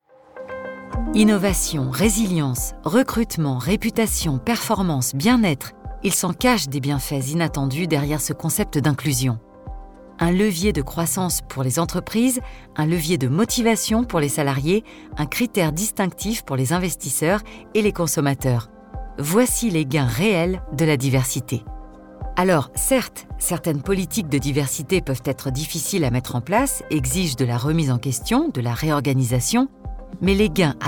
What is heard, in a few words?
E-Learning; La diversite